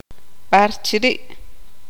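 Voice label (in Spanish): "donde", Tz'utujil, female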